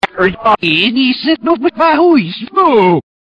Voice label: whoops, reverse